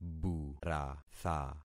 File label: "buratha", concat syllables; Affixing experiment